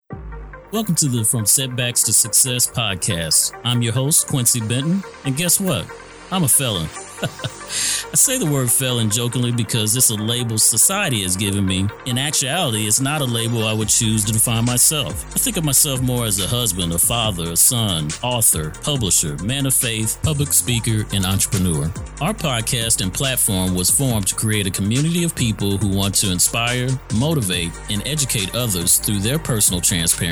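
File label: commercial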